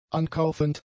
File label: "ankaufend", German; adjectives